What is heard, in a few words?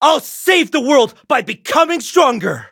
level up, en